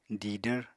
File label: "didvr", Apatani